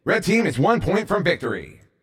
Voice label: One Pt From Victory Red 2 Flags, announcer

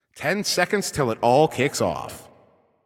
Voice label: 10 Seconds To Game Start, Announcer

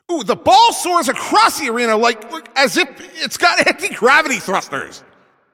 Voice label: Announcer Long Hit To Other Side

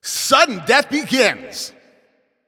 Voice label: Announcer Overtime Starts

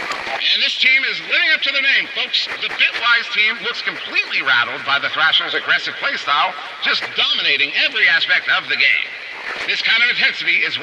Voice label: Transmission Echoes

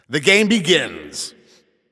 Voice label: Game Start, Announcer

